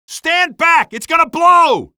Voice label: male; streetwar; tunnel